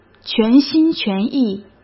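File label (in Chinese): "全心全意", Mandarin Chinese; hsk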